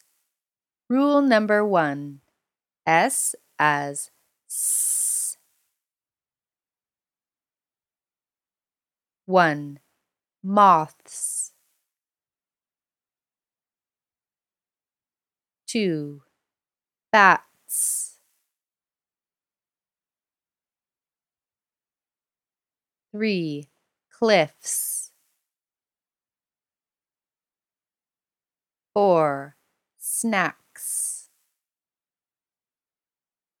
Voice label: Pronunciation Practice